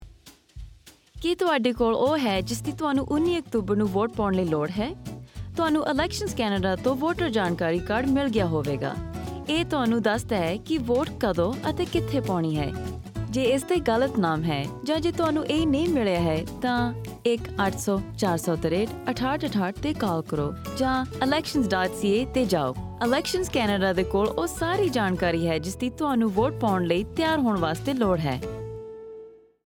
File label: punjabi